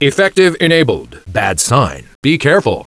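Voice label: "Effective enabled. Bad sign, be careful!" Low Male Voice; Knights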